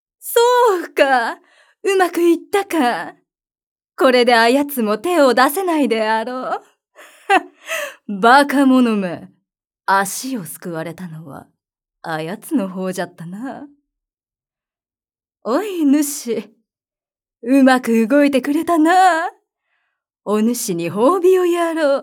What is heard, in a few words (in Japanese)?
色気; 女性